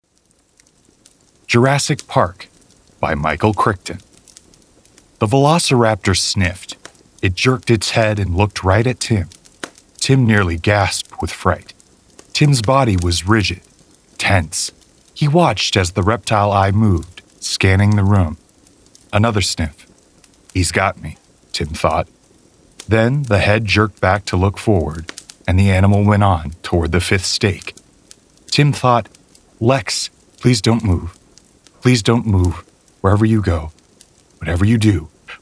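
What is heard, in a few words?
voice over audiobook narration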